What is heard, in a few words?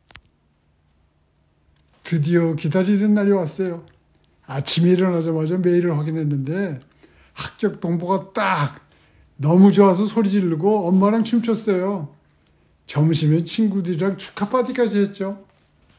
Old Male Happy, korean voice emotion